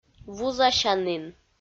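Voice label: "вузасянін", Komi, female; audio dict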